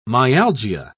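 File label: "myalgia", British English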